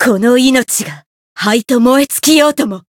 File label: variable chant jp